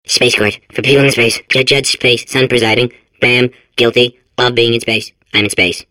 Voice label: babble; Portal 2